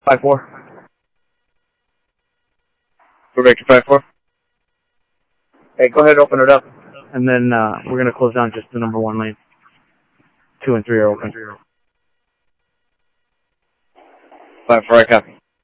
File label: Mesa Simulcast, MESA PD, scanner audio